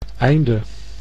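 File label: "einde", Dutch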